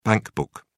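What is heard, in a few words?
"bankbook", British English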